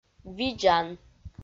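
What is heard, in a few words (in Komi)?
"видзан", Komi, female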